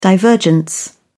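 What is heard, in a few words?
"divergence", British English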